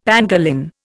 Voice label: "pangolin", Indian; Dictionary